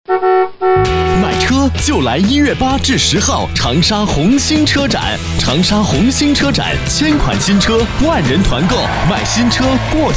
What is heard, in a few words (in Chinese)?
男 广告 车展